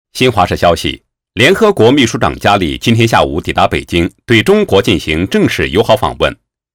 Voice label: 男 新闻; 联合国秘书长访华

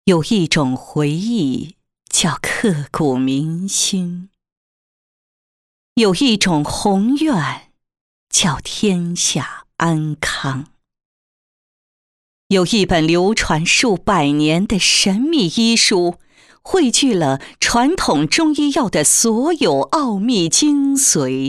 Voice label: 女-人物专题; 立志中医

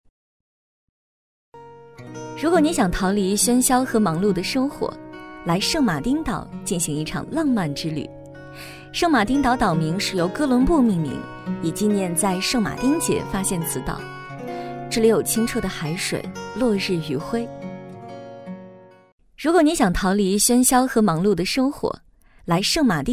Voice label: 女 素人自述 文艺旅游

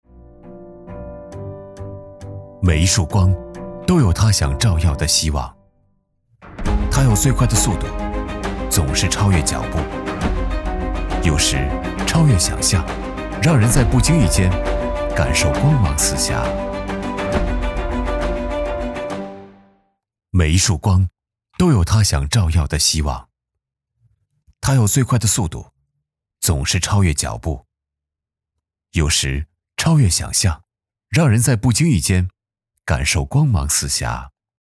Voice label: 男 科技感 科技短片

